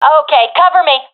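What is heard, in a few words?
request coverme, professional female; vo; agents; Source Model Packs; Counter Strike Model Pack; CS2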